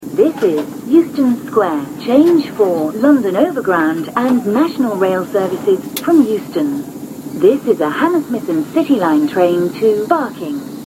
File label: This Euston Square, Hammersmith & City to Barking, Underground